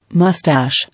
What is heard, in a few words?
"Moustache", English